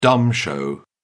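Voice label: "dumb show", British English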